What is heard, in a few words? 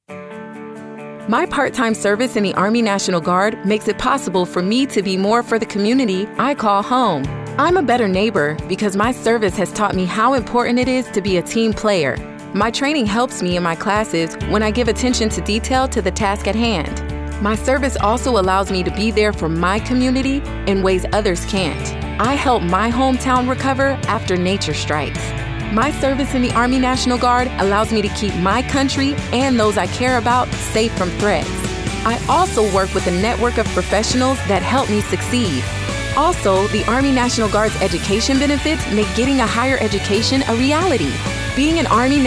radio english